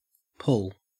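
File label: "pull", Australian English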